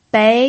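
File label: "bei", pinyin, second tone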